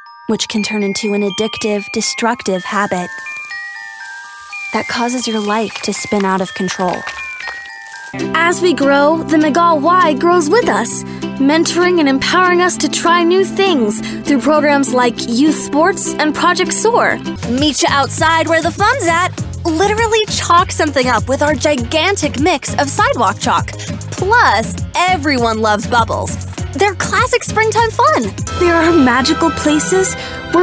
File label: Commercial Child Tween